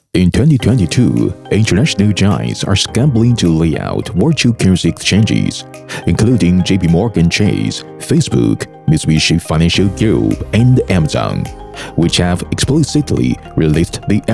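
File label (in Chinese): 商务干练科技区块链项目英文样音; 日本热币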